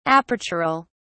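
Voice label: "apertural", British English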